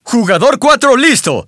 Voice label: Player4 ready, Spanish